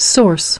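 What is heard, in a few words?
"Source", English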